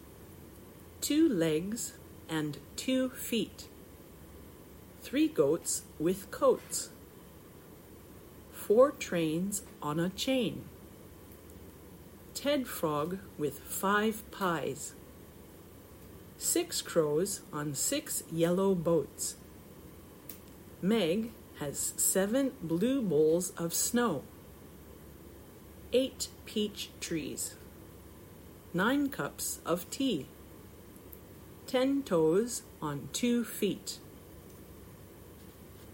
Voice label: Reading Test Practice